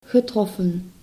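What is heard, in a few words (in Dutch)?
"getroffen", Dutch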